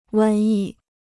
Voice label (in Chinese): "瘟疫", Chinese